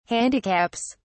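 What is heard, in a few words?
"handicaps", British English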